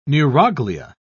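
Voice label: "neuroglia", British English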